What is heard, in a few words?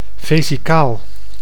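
"vesicaal", Dutch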